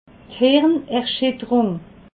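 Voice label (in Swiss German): "hirnerschitterung", Alsatian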